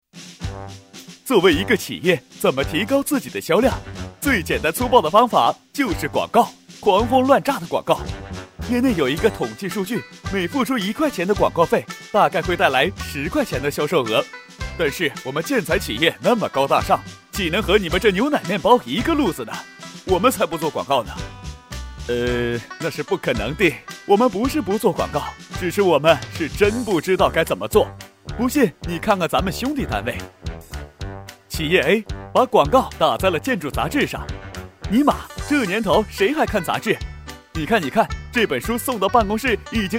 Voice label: 男, 幽默夸张; 飞碟说

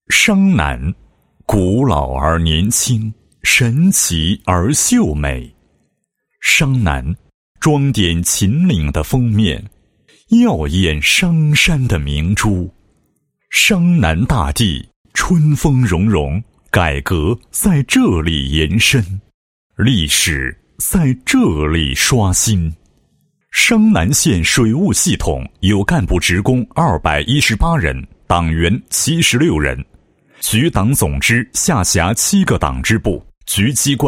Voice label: 男 党建 水务局党建工作解说词; 商南县水务局

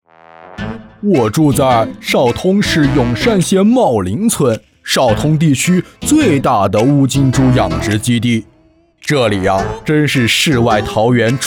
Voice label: 解说词; 蓝天白云下奔跑的黑面郎

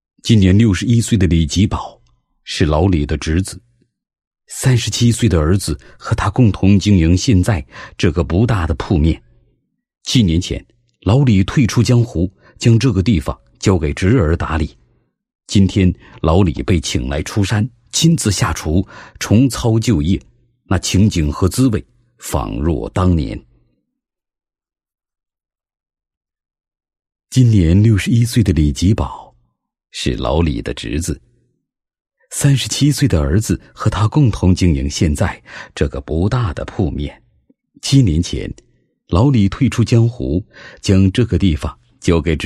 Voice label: 男-宣传片; 噔噔面宣传介绍

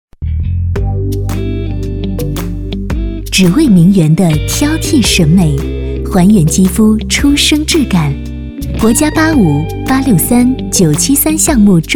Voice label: 女 化妆品 柔和 性感; 国语女声配音; 大气专题

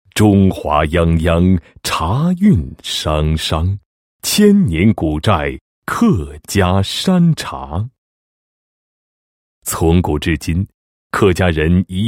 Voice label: 男 茶酒专题 介绍; 客家山茶介绍; 碧乡源客家山茶介绍

